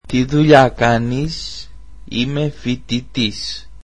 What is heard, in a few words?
greek I live in the US